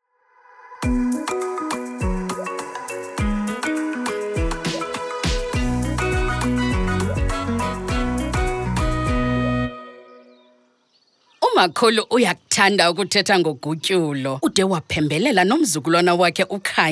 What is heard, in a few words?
Users Treatment Xhosa; Sanitation; DWS